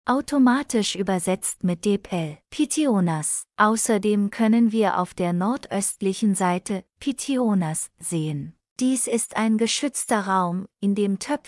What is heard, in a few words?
german, Geführte Audio-Tour; Πιθεώνας; Pitheonas